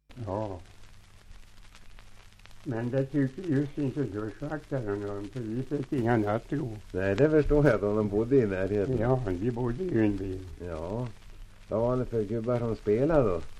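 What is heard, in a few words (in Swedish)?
Säterbo Västmanland, dialekter, svenska sagor; Folkminnen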